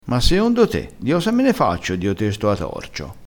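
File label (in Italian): "di osa", dialettale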